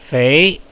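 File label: "fei", Mandarin Chinese, second tone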